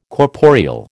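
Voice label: "corporeal", American English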